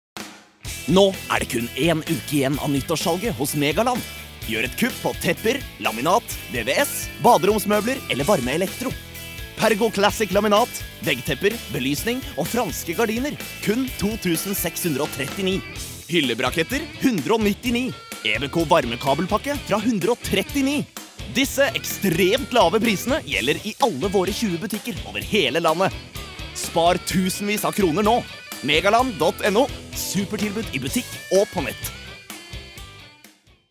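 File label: no hard sell, Norwegian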